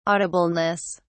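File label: "audibleness", British English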